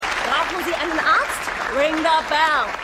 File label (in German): Frau; AtlantaMedical